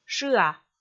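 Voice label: "шыа", Komi, female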